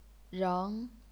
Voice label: "reng2", chinese pinyin

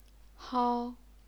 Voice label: "hao1", chinese pinyin

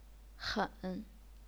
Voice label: "hen3", chinese pinyin

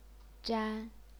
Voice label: "zhan1", chinese pinyin

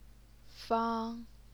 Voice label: "fang1", chinese pinyin

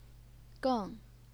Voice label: "geng4", Chinese pinyin

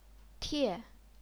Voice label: "tie4", chinese pinyin; 餮; 帖

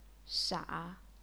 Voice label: "sha3", chinese pinyin

